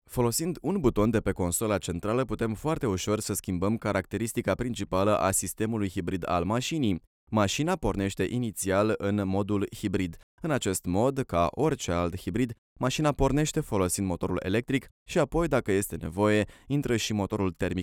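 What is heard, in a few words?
romanian male narration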